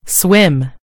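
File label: "swim", American English; gcompris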